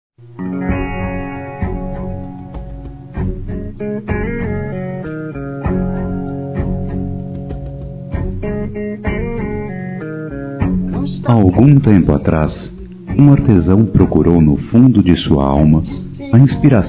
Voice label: Romântica Masculino, Dia Dos namorados; Telemensagens; Telemensagens 2017

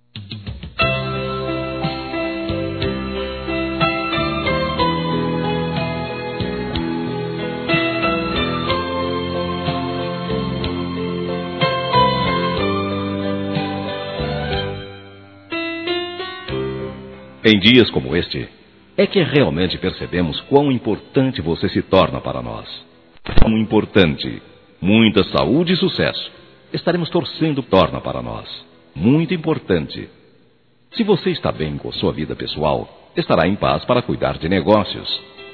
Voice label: Aniversário Cliente Masculino, Telemensagens; Empresarial; Telemensagens 2017

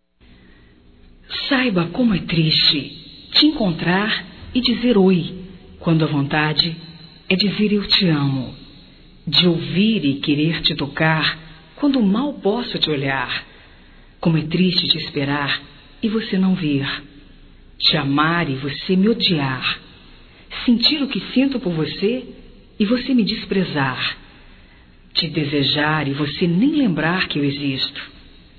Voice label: Feminino; Amor Não Correspondido Feminino

